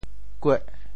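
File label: "kueh8", Teochew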